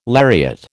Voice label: "lariat", American

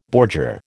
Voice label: "bordure", American

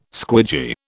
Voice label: "squidgy", British

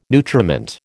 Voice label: "nutriment", American

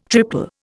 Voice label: "triple", Indian

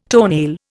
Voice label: "toenail", Indian

